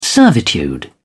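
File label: "servitude", British English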